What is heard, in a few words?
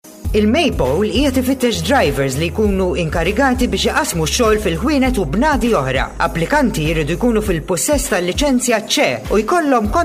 MALTESE